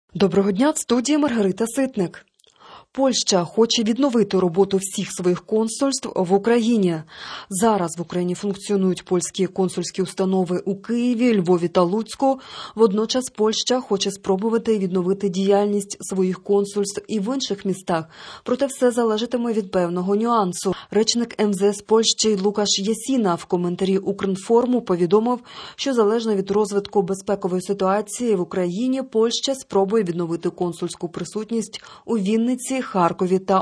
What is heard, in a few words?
Ukrainian Morning News